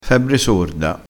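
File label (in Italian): "febbre sorda", vocabolario dialettale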